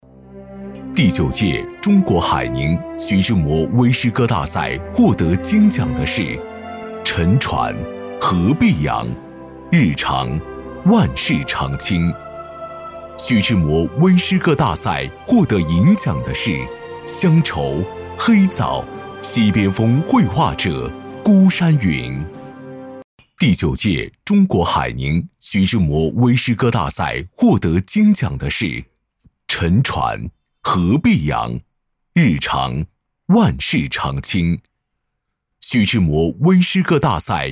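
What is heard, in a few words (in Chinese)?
男【颁奖】; 诗歌节获奖名单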